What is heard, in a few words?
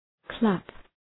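"clap", English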